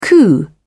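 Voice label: "coup", British English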